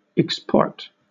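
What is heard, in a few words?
"export", British English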